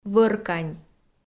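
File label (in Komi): "вӧркань", Komi, female; audio dict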